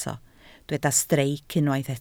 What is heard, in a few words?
"streic", Welsh